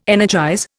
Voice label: "energize", Indian